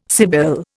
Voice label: "sibyl", Indian